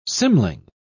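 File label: "cymling", British English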